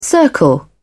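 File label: "circle", British English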